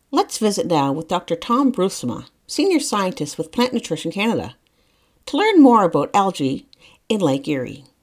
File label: narration intro to podcast